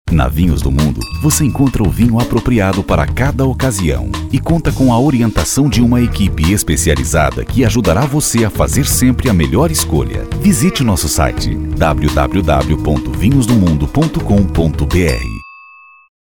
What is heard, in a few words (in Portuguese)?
Spot